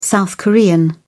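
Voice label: "south korean", English, British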